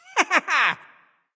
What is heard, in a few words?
grunt; smite; apollo